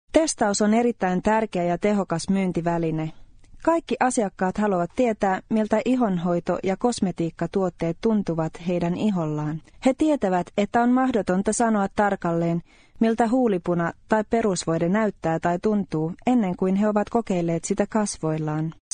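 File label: Finnish; Finnish, Scandinavian, Male, 20s-40s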